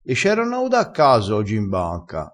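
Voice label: "a caso", Italian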